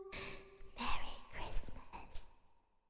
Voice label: whispers Merry Christmas